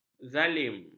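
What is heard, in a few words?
"zalim", Malay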